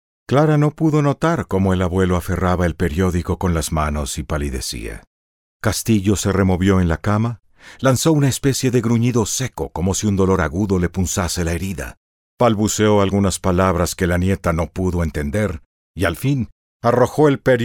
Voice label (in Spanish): AUDIOLIBRO